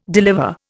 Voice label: "deliver", Indian